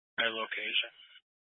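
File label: Fire Dispatch, scanner audio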